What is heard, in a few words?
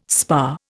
"spa", Indian